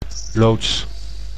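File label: "loods", Dutch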